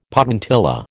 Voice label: "potentilla", American